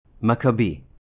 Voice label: "Maccabee", Hebrew